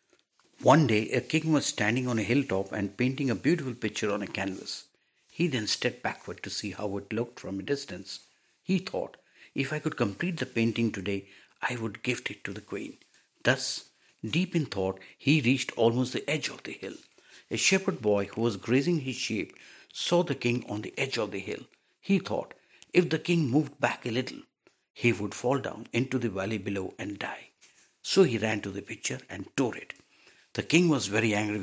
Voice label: narrating a story

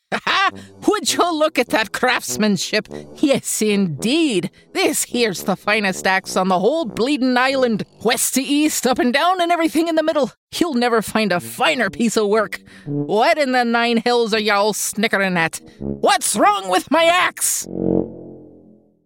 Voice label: Funny; A Mighty Fine Axe

